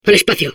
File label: Space core babble, es; Al espacio.